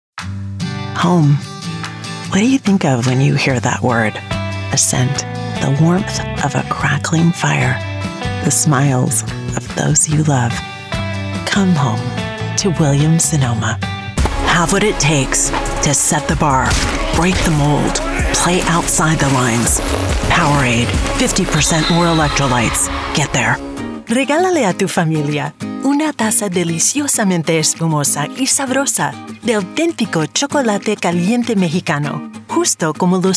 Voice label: Commercial